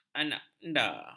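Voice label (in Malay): "anakanda", Malay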